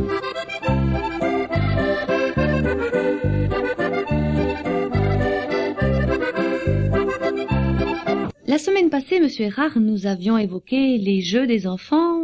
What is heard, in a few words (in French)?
Emission patois